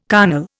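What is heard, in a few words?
"carnal", Indian English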